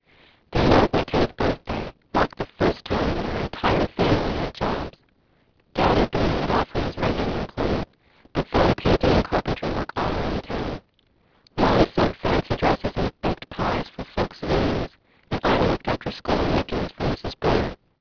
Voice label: female clean